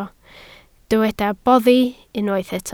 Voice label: "boddi", Welsh